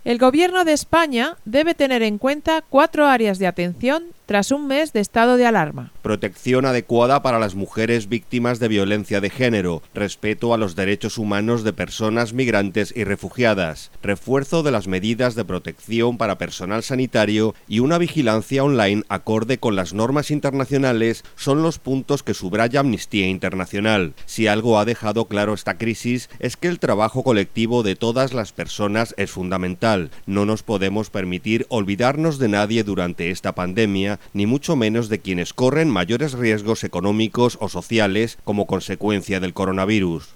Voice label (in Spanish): informativo; espana areas atencion estado alarma